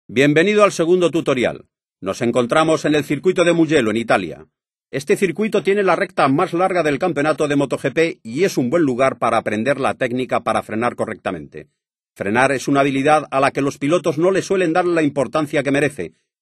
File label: braking spanish, speech; MotoGP 3